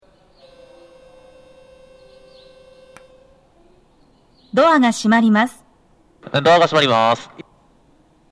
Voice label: 扉閉め放送, 駅員放送が被っています。

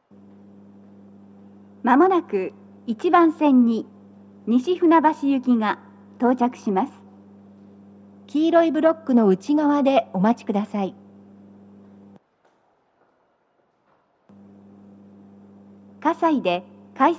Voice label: 接近放送, BOSE天井型での収録です。; 放送は「各駅停車 西船橋行」です。葛西で、快速 津田沼行きの通過待ちを致します。

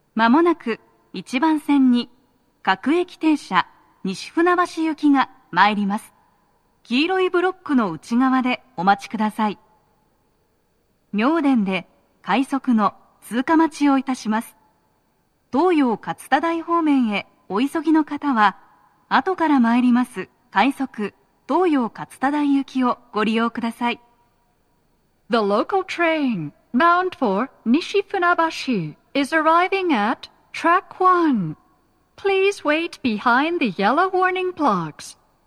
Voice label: 接近放送, 女声, 各駅停車 西船橋行, 妙典で、快速 東葉勝田台行きの通過待ちを致します。; 1番線 西船橋・東葉勝田台・津田沼方面; 鳴動は、やや遅めです。; 東西線

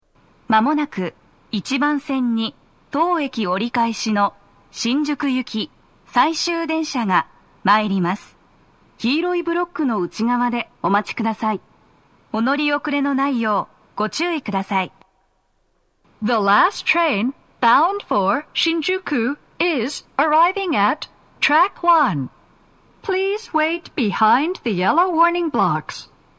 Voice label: 接近放送, 平日朝ラッシュの収録で、駅員放送が被っています。; 池袋; 放送は「新宿行き 最終電車」です。

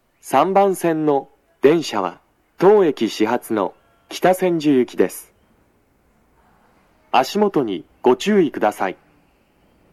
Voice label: 到着放送, 男声; 3番線 北千住・南栗橋方面; 放送は「北千住行 (当駅始発)」です。; 中目黒駅

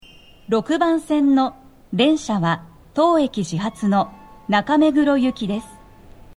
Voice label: 到着放送 【女声】, 放送は「中目黒行 (当駅始発)」です。; 6番線 中目黒方面

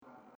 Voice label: sekkin, 接近放送 【女声】; 1番線 西船橋・東葉勝田台・津田沼方面; 放送は「各駅停車 西船橋行」です。原木中山で、快速 東葉勝田台行きの通過待ちを致します。; 南砂町

